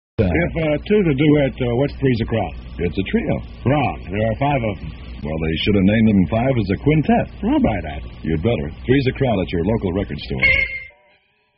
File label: lp spot; psychedelic promos and radio spots